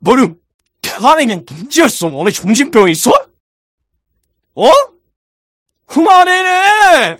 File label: scout jeers